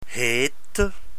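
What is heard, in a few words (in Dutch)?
"heette", Dutch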